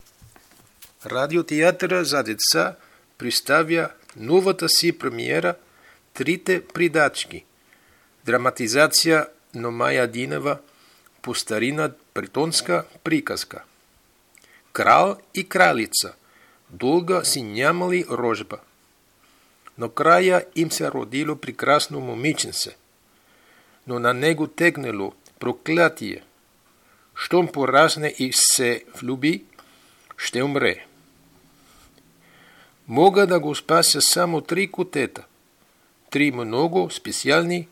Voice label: bulgarian practice predachki